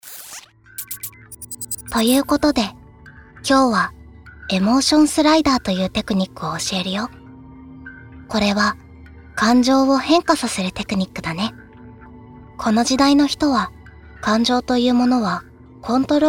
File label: ASMR; STエモーションスライダー説明